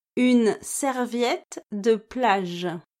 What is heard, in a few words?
"une serviette de plage", French